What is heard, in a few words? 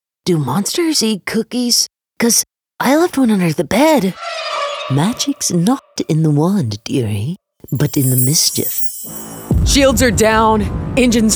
female voiceover character voices